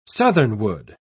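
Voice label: "southernwood", English, British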